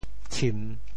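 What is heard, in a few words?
"tshim3", Teochew; 吣（吢）; 潮州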